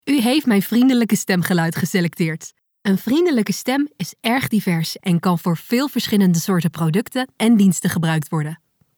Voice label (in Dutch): Vriendelijk